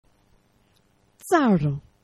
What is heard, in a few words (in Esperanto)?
"caro", esperanto; geneva